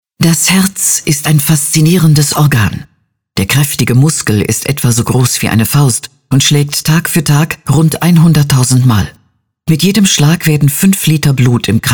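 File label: female, German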